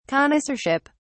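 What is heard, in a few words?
"connoisseurship", British English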